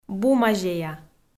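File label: "бумазея", Komi, female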